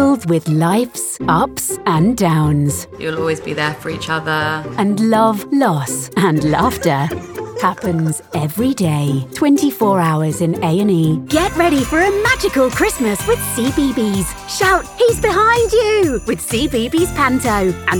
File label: Radio TV Promo